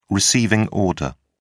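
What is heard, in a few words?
"receiving order", British English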